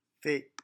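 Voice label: "fee", French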